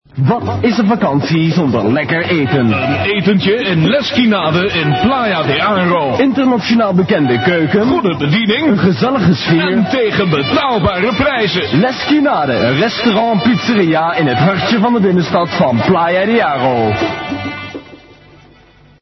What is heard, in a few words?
commercial